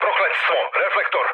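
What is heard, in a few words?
Serbian searchlite mood low, speech; War Thunder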